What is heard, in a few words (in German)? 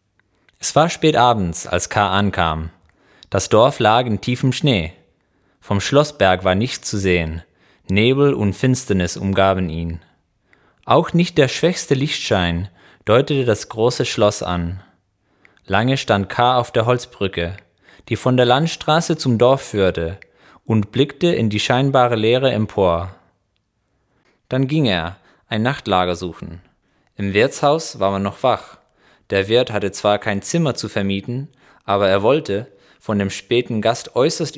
Santiago deutsch